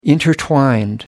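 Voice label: "intertwined", British English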